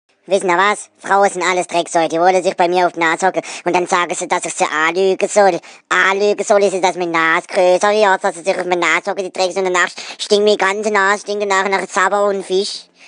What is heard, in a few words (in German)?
Pinocchio schwäbisch